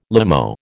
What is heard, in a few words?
"limo", American